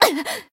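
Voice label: damaged, Japanese; niike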